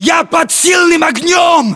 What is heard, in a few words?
inform suppressed generic, battlechatter ru